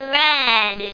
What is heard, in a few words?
"red", Robots; Same Game